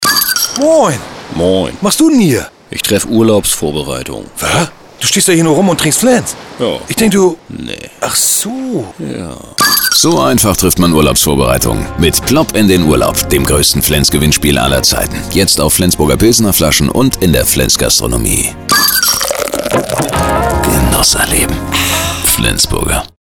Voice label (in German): Funkspot Urlaubsplop; Neulich Mit Urlaubsplop kurz